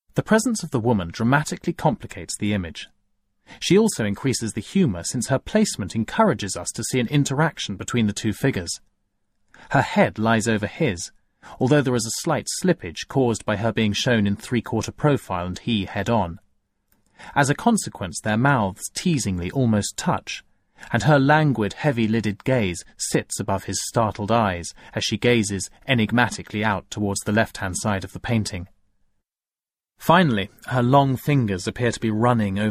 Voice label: Descriptive Narration; The Handsome Pork Butcher by Francis Picabia